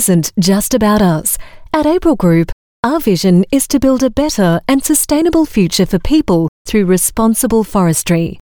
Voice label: AU MidAtlantic English Paper Manufacturer TVC, Australian Female; April Group